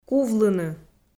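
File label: "кувлыны", Komi, female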